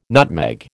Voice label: "nutmeg", American